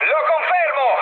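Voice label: Italian player killed enemy mood high, speech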